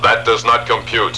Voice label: Does Not Compute, robot; robot b9